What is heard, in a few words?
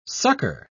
"succor", pronounce